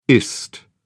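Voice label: "est", British English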